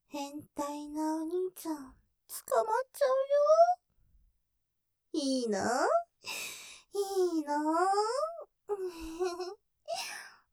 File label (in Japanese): 言葉責め; ヘンタイのお兄ちゃん捕まっちゃうよｗ; ステレオ; ループで楽しむ！シェフの気まぐれ抜き抜きコース